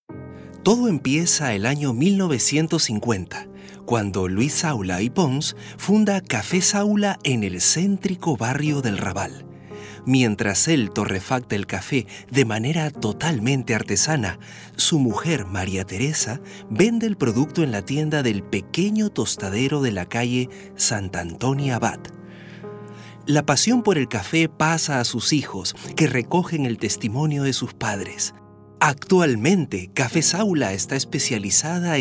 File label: male latam peruvian comercial; ideasonora